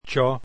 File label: esperanto alphabet cx